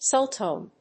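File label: "sultone", English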